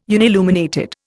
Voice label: "unilluminated", Indian